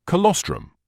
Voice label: "colostrum", medical english uk